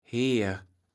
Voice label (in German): "Heer", Platt